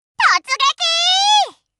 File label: cheer, Anime Loli, voice commands; svencoop